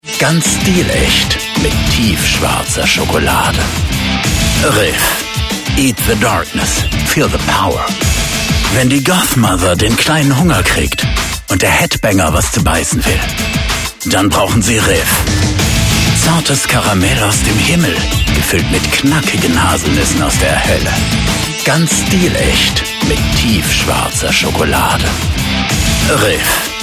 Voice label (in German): Werbung Intensiv Deutsch